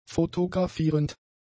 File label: "fotografierend", German; adjectives